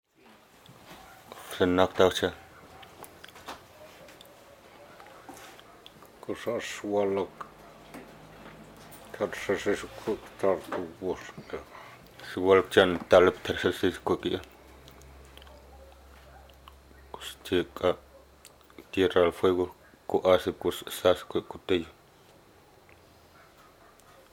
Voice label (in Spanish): qawashqar conversacion; Kawashkar